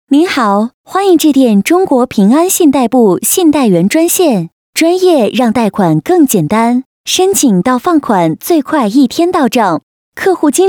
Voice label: 女 彩铃, 国语女声配音; 中国平安

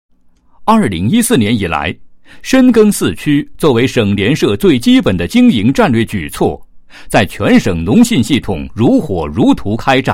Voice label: 男 解说

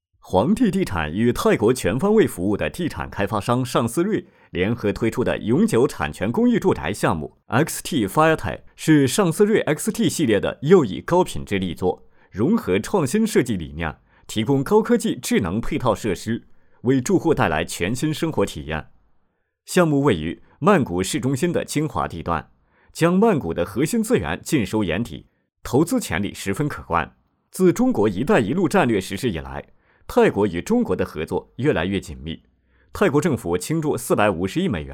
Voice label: 男 新闻

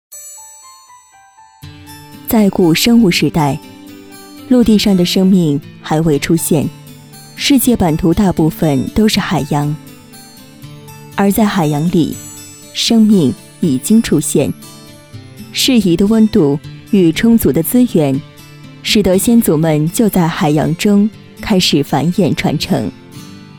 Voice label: 女 记录解说类; 奥陶纪

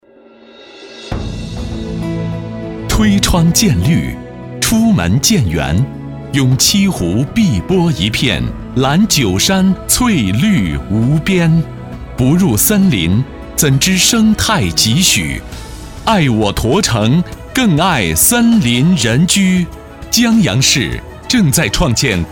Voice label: 男 城市专题 自然亲和; 江阳创建森林城市

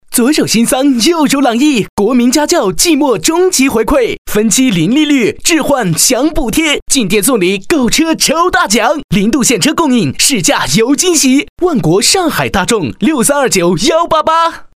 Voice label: 男 广告, 国语男声配音 年轻时尚飞碟说; 万国大众

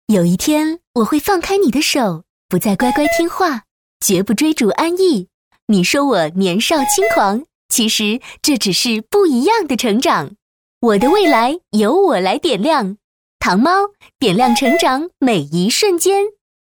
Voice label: 女 宣传广告 电话手表; 糖猫

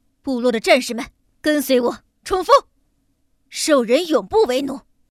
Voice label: 女, 狂战士

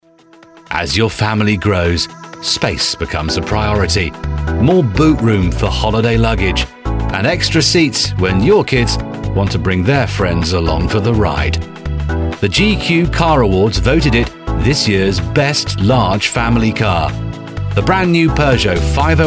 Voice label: 外籍-宣传片-动感; 保时捷

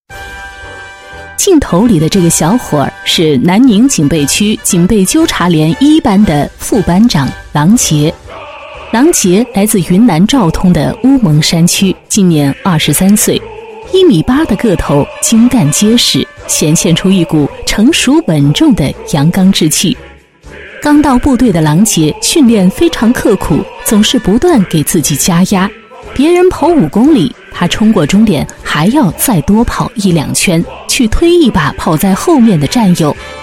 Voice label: 女 专题 军人 铿锵 陈述, 国语女声配音

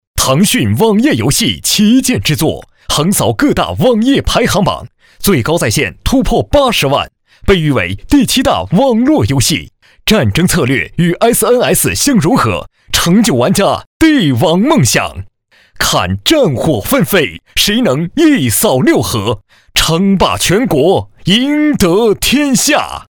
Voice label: 男 广告, 国语男声配音 磁性; 腾讯广告

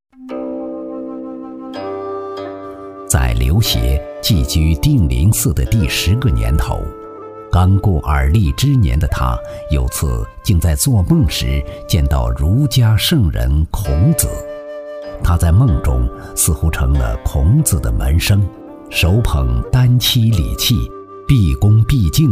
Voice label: 男 专栏解说 沧桑 幽古, 磁性浑厚抒情; 国语男声配音; 刘勰与《文心雕龙》; 男9磁性浑厚抒情

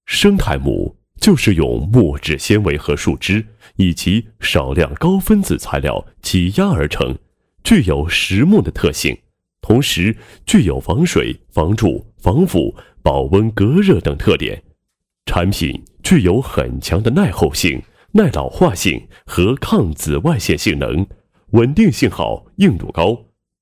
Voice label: 男, 家居品牌宣传, 解说配音; 德重生态木

